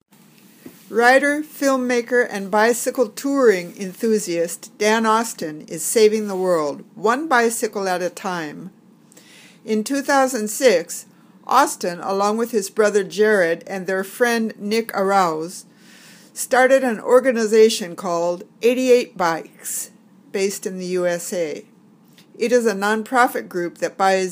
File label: Reading Drill